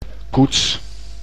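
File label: "koets", Dutch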